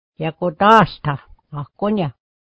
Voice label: pyjamas, mohawk